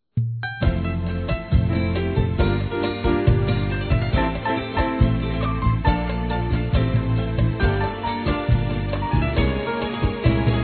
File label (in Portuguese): COM CONQUISTA F; Aniversários; ANIVERSARIO romantico; fem